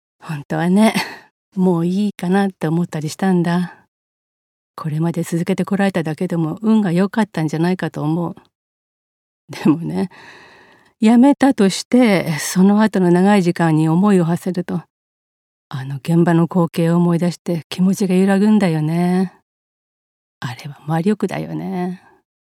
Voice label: 訥々と語る

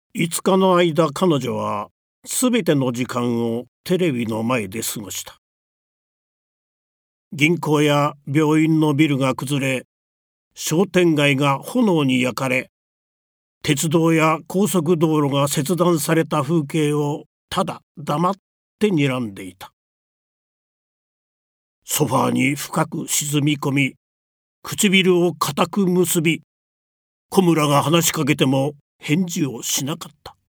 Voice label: 朗読

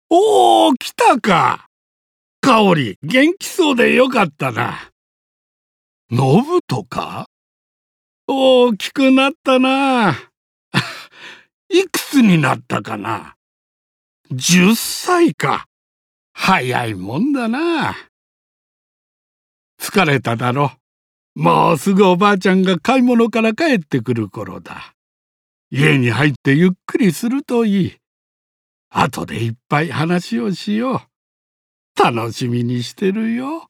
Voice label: 孫と会って喜ぶ祖父

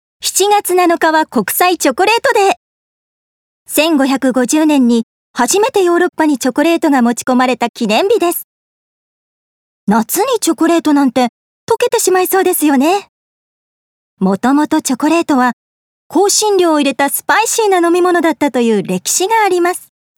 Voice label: 記念日解説

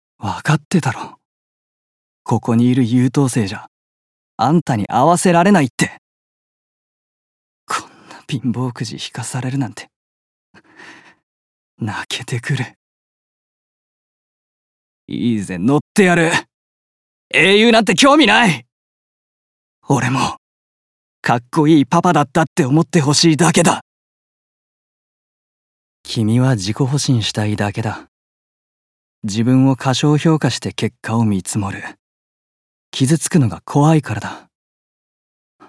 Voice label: 落胆から開き直り

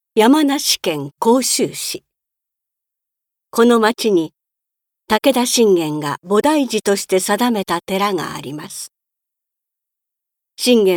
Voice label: 紀行ナレ

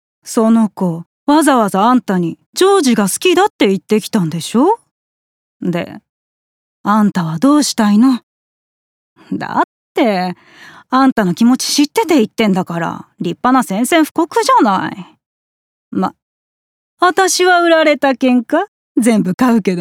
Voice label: 50代

